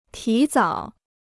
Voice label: "提早", Chinese

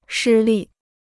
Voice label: "失利", Chinese

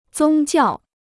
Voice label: "宗教", Chinese

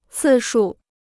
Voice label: "次数", Chinese

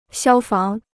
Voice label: "消防", Chinese

